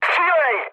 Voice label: attack position, speech cze, mood low

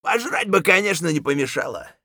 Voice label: scav mutter, voice; Escape from Tarkov; scav4

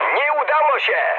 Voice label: bomb failure mood high, speech pol; War Thunder

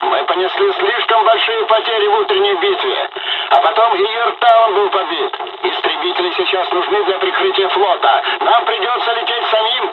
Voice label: dialog, dialogs russian; us midway; War Thunder

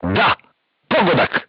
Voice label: voice message direct hit, crew tanks serbian

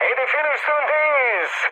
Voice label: near waypoint, mood high, speech tur; War Thunder

